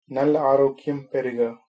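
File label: cheers, male, tamil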